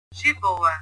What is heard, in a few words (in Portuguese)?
"jiboa", portugues